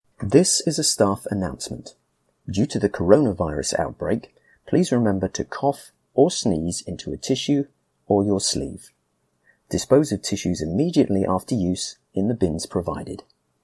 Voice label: Coronavirus cough sneeze male voice